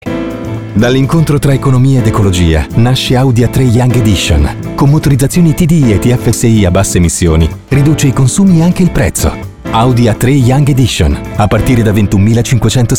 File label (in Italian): istituzionale, giovane, rassicurante; AUDI A3 Young Edition